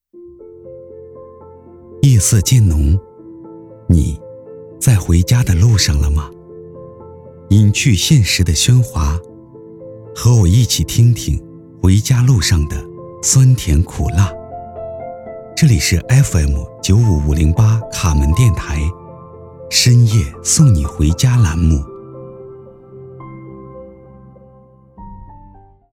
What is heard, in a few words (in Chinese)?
男 电台节目 电台栏目音; 卡门